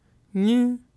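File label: "nyI", syllable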